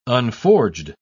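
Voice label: "unforged", English, uk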